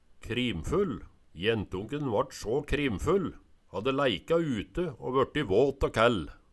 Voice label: krimfull, Numedalsmål

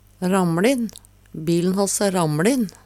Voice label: "ramLin", Numedalsmål; Bil`n hass æ ramLin.; Adjektiv

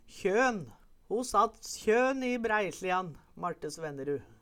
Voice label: kjon, Numedalsmål

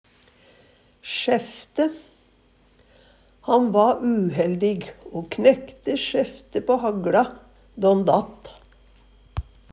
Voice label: sjæfte, Numedalsmål; Han va uheldig o knekte sjæfte på hagLa då'n datt.

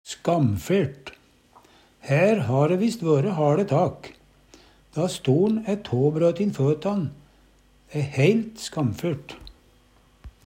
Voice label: "skamfert", Numedalsmål; Her ha ræ visst vøre haLe tak. Da stoL'n æ tåbrøtin føtan, æ heilt skamfert.

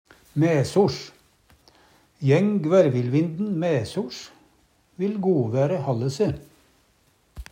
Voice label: maesoLs, Numedalsmål; Adverb

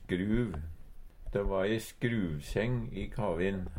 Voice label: "skruv", Numedalsmål; Substantiv hankjønn

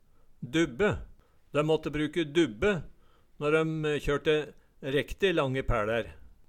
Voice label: "dubbe", Numedalsmål; I stedet for geit bak bukken når en kjørte langt tømmer.

